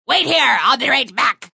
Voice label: Wait Here Be Back, Female; Talking; Voice Box

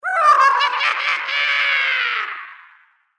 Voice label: Evil Clown, Evil Voice; Horror; BlastWave

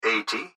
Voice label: Gps Voice, Male; Eighty; Technology; Recorded Voice; Blastwave

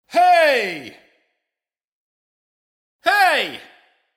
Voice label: "Hey" Spoken

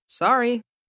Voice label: "Sorry", Etiquette, 1 boy, 12 yrs.; Talking

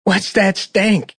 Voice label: Whats That Stink, Male; Talking; Voice Box

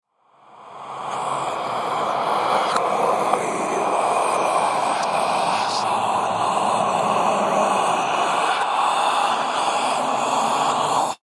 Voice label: Horror Evil Spell Voice

